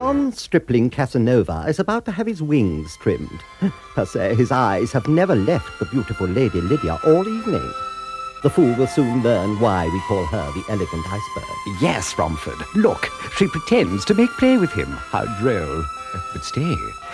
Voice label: Radio Hallam Advert; Bramalls Gold Room Casanova